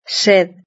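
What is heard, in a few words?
"sed", Spanish; verb-conjugator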